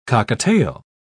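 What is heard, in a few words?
"cockatiel", British English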